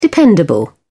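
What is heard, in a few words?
"dependable", British English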